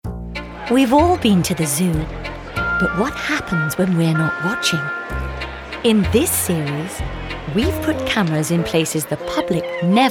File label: Documentary